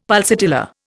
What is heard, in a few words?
"pulsatilla", Indian; Dictionary